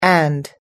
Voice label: "and", us english

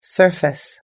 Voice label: "surface", US English

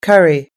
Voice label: "curry", US English

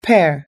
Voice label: "pear", us english

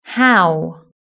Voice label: "how", British English

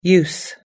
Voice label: "use", us english

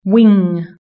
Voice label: "wing", british english

